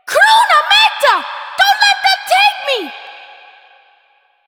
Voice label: Child; Villagers; en; Ostron; Warframe; Cetus Invasion